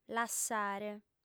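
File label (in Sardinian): "lassare", Sardinian, female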